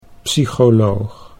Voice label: "psycholoog", Dutch

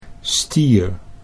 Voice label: "stier", Dutch

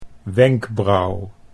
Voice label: "wenkbrauw", Dutch

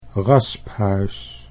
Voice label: "rasphuis", Dutch

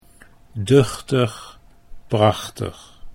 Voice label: duchtig prachtig, Dutch

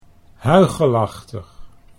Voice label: "huichelachtig", Dutch

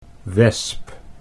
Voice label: "wesp", Dutch

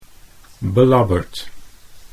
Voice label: "belabberd", Dutch

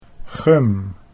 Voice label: "gum", Dutch

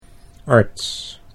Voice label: "arts", Dutch

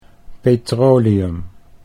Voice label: "petroleum", Dutch

